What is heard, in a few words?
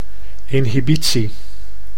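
"inhibitie", Dutch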